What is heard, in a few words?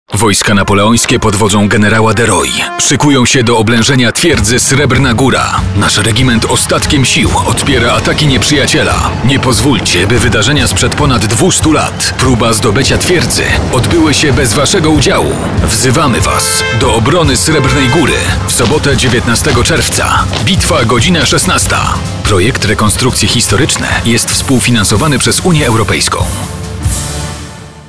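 Polish, male, narration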